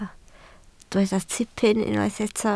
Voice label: "tipyn", Welsh